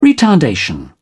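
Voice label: "retardation", English, British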